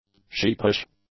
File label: "sheepish", English; adjectives